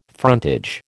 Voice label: "frontage", American